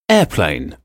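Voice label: "airplane", English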